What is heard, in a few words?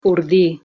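"urdi", Proto-Indo-European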